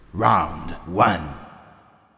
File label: Fight Voiceover Round1